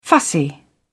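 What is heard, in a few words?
"fussy", British English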